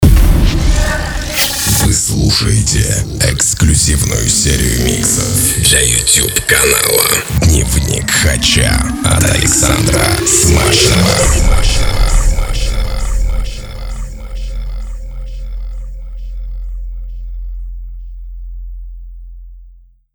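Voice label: male voice; Muzhskoj golos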